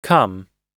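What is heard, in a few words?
"come", US English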